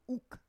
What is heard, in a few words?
"uk", Roon